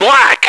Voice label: black, radio, male